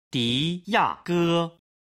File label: "di yage", Chinese